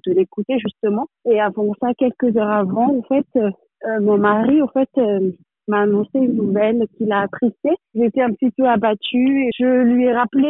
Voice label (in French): Temoignage sur Radio Gospel